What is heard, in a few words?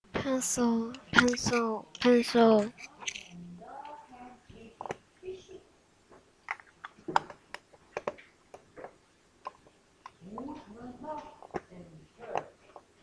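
"pencil", spoken word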